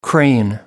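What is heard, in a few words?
"crane", English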